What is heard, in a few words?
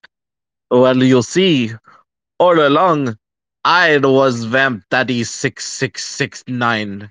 voice message